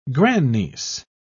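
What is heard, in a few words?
"grandniece", English, British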